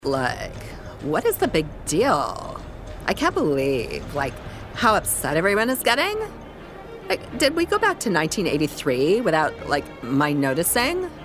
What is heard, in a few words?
Valley Girl passenger - uptalk valleyspeak